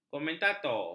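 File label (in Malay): "komentator", Malay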